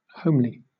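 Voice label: "homely", British English; pronunciations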